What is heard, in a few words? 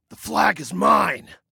Flag I Have, Male